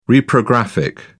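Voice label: "reprographic", British English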